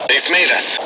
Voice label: detected, M Voice English